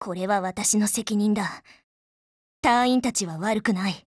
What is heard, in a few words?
RETREAT JP